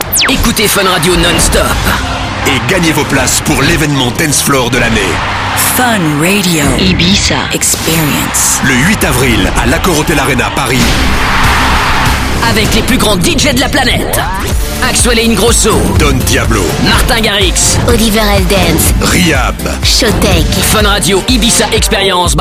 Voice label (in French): spot tv; FUN IBIZA